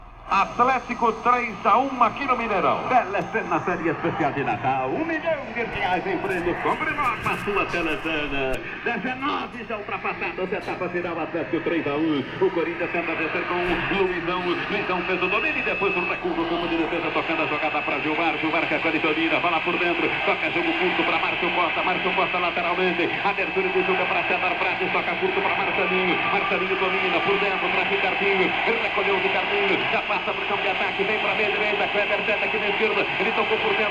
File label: Radio Football; Fieldrecordings; Brasilien